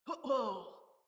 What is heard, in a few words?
Female, Battle Barks; Envoy